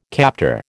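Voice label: "captor", American English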